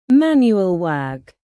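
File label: "manual work" British; Business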